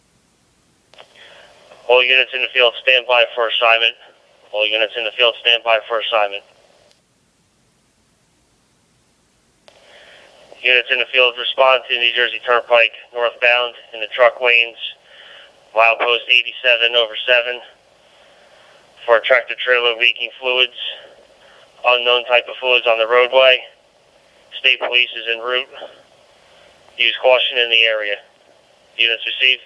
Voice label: Yaesu Fusion Decoded; decoded audio; AMBE+ codec; This is an FDMA signal format using the AMBE+ codec & is not compatible with C4FM P25 radios.